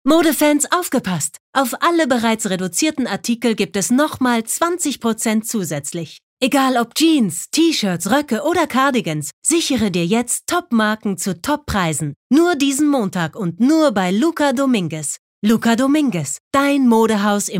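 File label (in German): Werbung, Dynamisch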